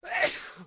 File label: man, tavern; Pirates Of The Caribbean; AMBIENT